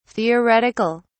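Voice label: "theoretic(al)", British English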